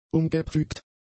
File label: "umgepflügt", German; adjectives